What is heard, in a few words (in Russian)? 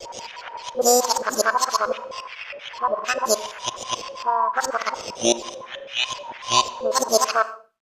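искусственная речь шумный резкий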